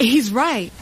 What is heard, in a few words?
"he's right", female